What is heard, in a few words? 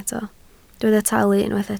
"talu", Welsh